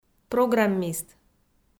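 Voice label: "программист", Komi, female; kpv audio dict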